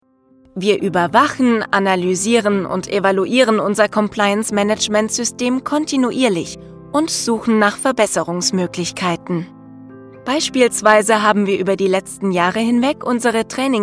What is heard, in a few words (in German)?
Erklaerfilme